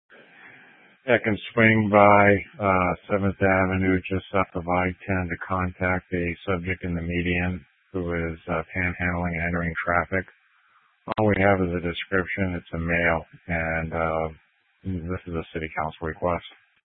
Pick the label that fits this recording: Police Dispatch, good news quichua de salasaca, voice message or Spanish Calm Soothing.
Police Dispatch